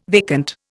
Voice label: "vacant", Indian